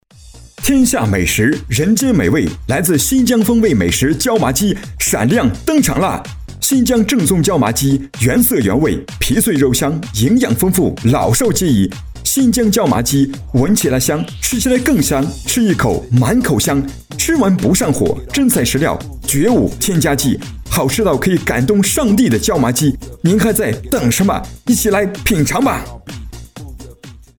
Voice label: 男 促销 食品百货

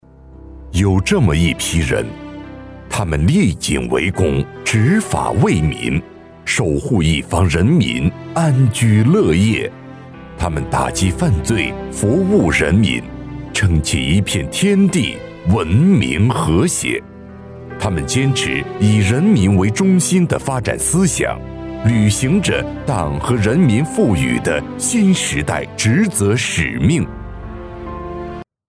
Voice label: 男 人物专题片 磁性叙述; 阿迪力

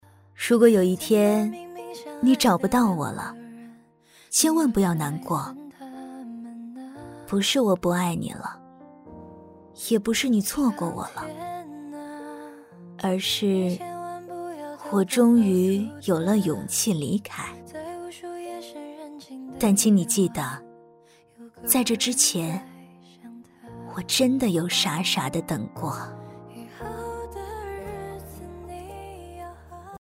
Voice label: C女 情感 舒缓女

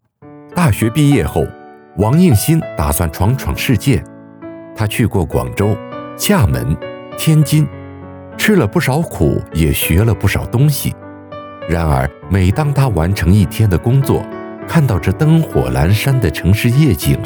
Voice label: A男 温暖叙述; 人物专题片; 古坡人

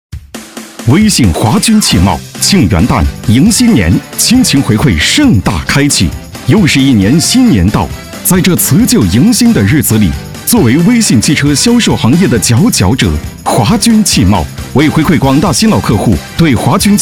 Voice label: 男 大气促销; 威信华筠汽贸

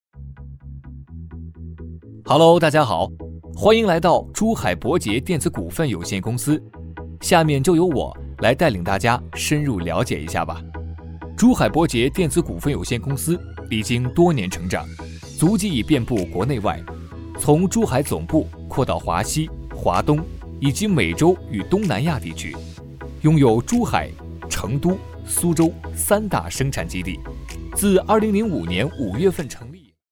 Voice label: 男 专题片

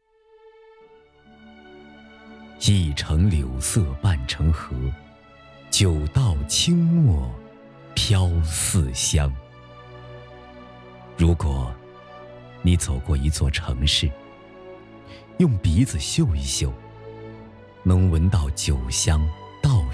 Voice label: B男 专题配音; 柳河县专题配音

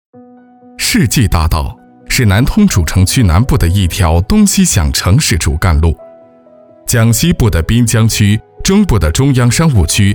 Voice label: 男 专题 工程解说类

